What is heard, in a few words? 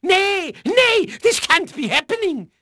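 Dutch male, voice; Pirates Of The Caribbean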